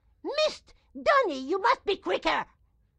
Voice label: grandma chopper missed; Blind Drive

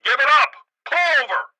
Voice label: police megaphone; Blind Drive

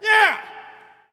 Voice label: Hockey Male "Yeah"; Hockey Vocal Sounds

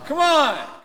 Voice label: Hockey Male "Come On"; SFX Kit; Hockey Vocal Sounds

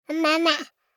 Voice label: BABIES TALK Boy 12 months "Mama"; Baby Boom

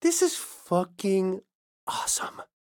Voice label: icecream reaction; donnie; Blind Drive

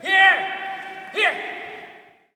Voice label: Hockey Male "Here"; Hockey Vocal Sounds

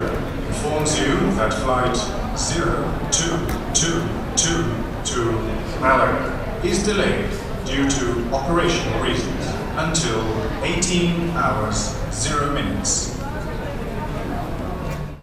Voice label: airport speaker english; best service Blue Box

